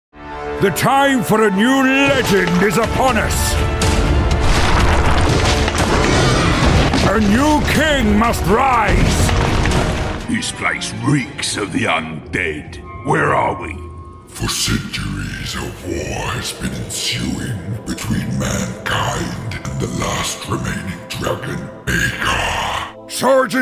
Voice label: english british video games